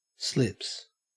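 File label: "slips", Australian English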